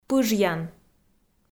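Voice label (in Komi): "пыжъян", Komi, female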